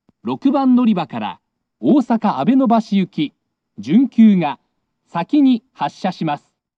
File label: arrival local, st announce; abenobashi; kashiharajingust; kintetsu